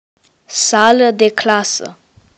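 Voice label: classroom, Romanian; PictureDictionary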